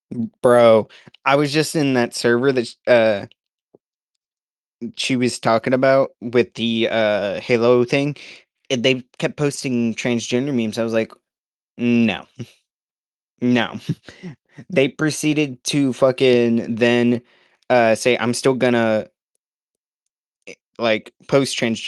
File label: voice message